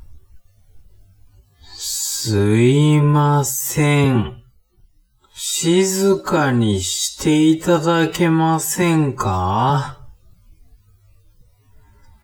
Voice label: man